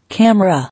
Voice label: "Camera", English